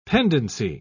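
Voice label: "pendency", English, British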